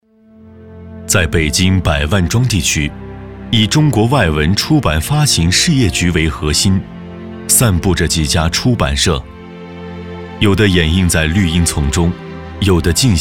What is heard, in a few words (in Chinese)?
记录片中国文学记录